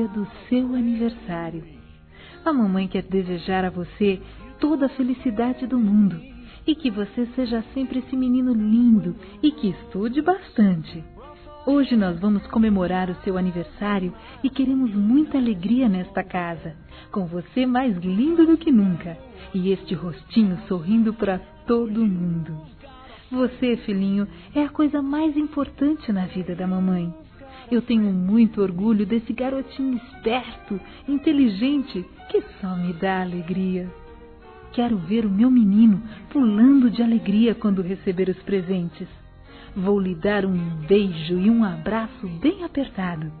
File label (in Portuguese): FILHO-F, Telemensagens; Aniversário Infantil